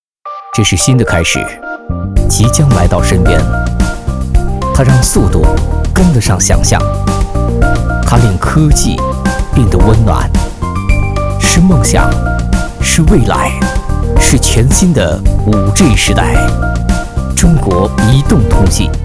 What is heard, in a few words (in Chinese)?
广告科技感十足; 5G时代